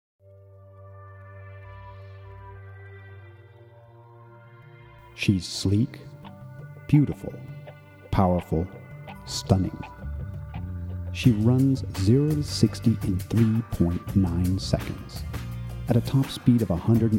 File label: Voice-Over; Body Tone Multimedia; Electra